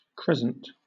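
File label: "crescent", British English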